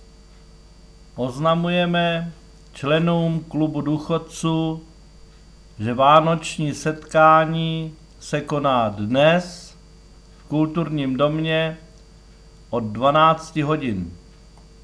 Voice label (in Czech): obecni rozhlas klub duchodcu